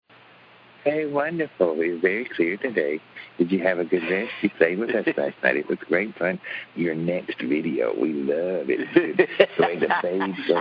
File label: rant